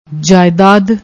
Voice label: "jaaedaad", Punjabi